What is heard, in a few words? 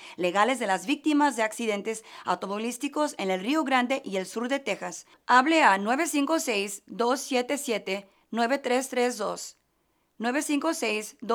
Spanish Personal Injury